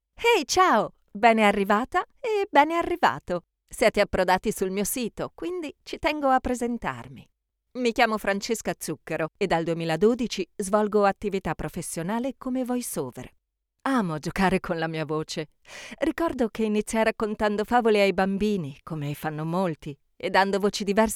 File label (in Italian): Presentazione